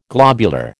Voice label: "globular", American